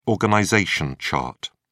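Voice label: "organization chart", British English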